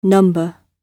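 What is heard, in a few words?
"number", British English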